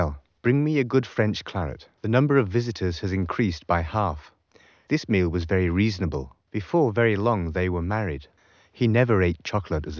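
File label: male, instantaneous, source; SiSEC11; underdetermined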